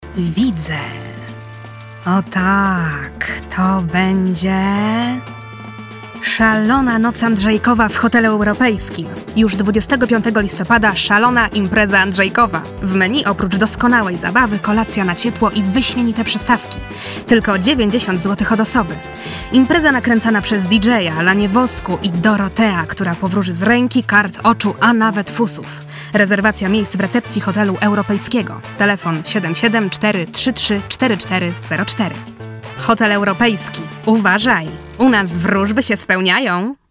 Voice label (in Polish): reklama, dziennikarka TV, ciepła barwa; 20-30 lat, dziennikarka telewizyjna z ciepłą barwą; Kobieta